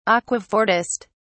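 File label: "aquafortist", British English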